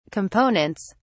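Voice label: "components", British English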